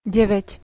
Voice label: "9", Slovak

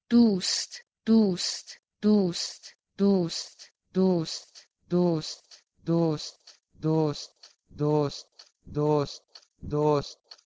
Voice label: Persian duust to Urdu dost

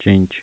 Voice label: "cinci", Romanian

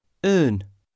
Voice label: "aon" Scots Gaelic

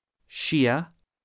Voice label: "sia", Scots Gaelic

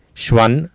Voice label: "Svan", Sanskrit

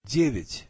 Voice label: "9", Russian

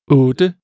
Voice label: "8", Danish

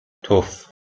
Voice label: "tuf", Persian, M

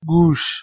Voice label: "guush", Persian

